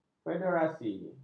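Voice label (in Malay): "federasi", Malay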